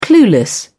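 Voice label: "clueless", English, British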